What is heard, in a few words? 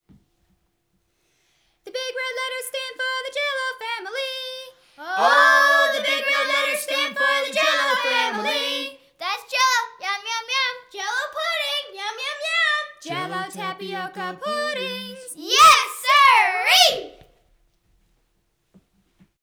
Jello commercial; sound effects and sound reinforcement